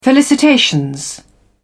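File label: "felicitations", British English, dictionary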